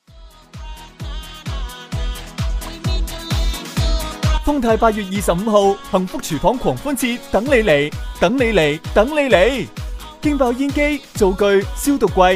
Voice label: 男 促销, 粤男; 方太幸福厨房狂欢

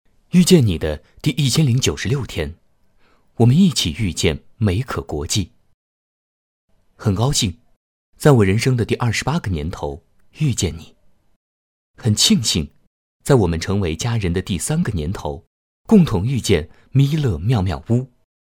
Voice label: 男 旁白; 美可

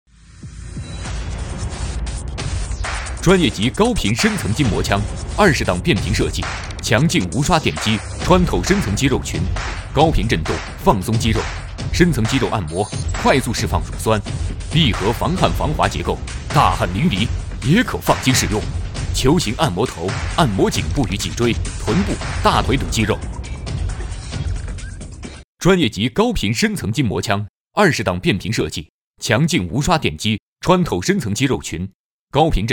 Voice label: 男 促销 电视促销; 深层筋膜枪

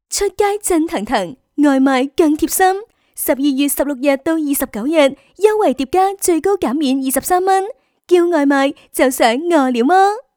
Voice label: 粤 女 促销; 饿了么

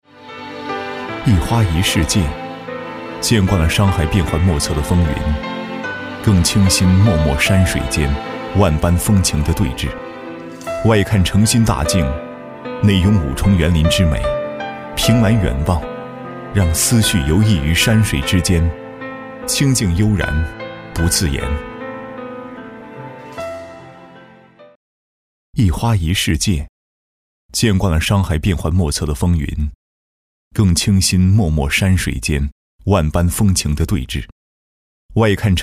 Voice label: 男 广告; 样板房

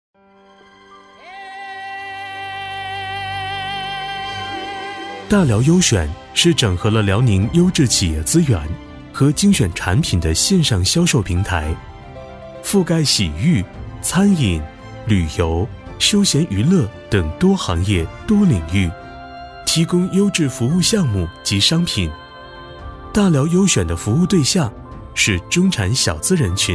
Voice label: 男 专题; 大辽优选

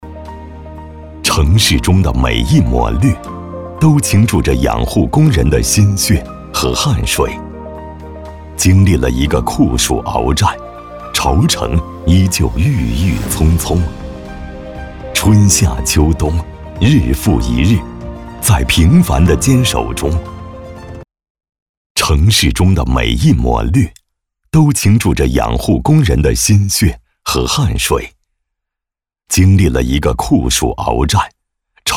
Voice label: 男 宣传片; 盎然

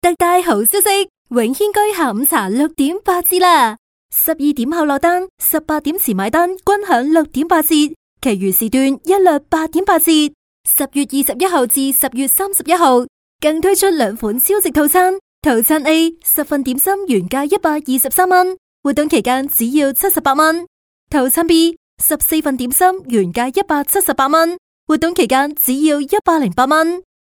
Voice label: 女 促销; 下午茶; 永轩居下午茶6.8折

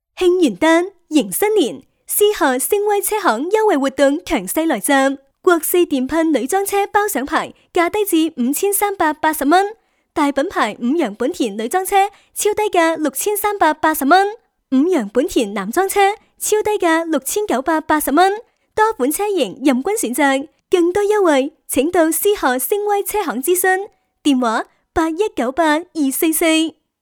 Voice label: 女 促销; 思贺声威车行

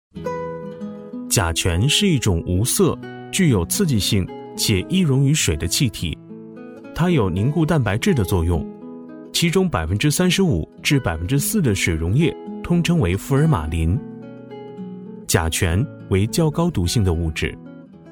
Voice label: 男 专题; 甲醛的危害

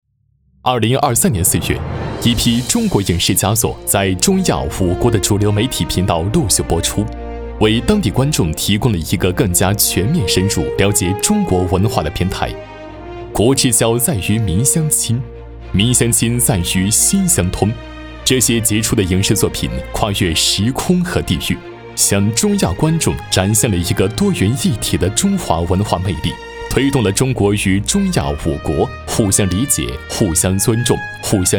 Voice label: 男 新闻播报

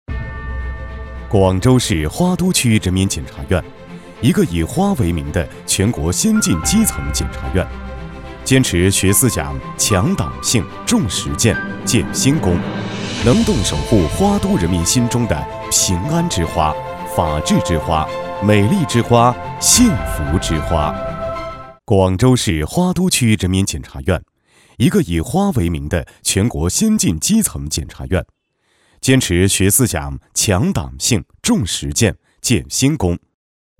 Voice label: 男 宣传片; 花都检察

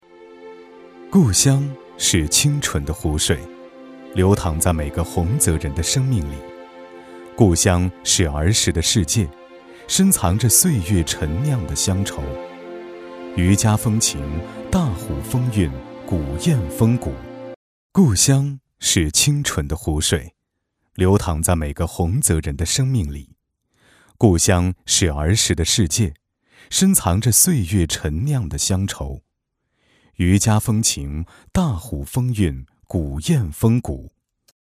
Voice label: 男 宣传片; 洪泽湖

